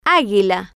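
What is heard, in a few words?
"aguila", Spanish, female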